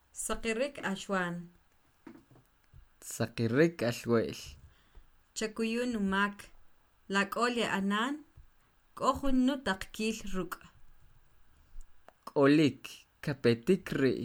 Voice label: questions dialogue